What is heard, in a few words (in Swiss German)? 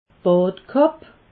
"badkapp", alsace